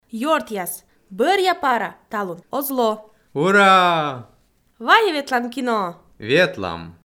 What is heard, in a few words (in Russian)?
dialog